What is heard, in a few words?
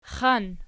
xan nom, archi